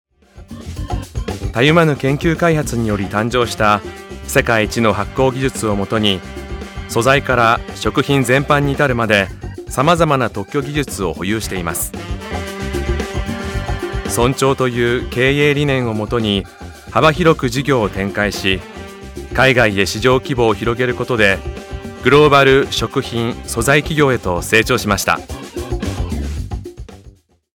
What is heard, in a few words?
JAPANESE MEN